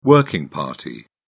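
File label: "working party", British English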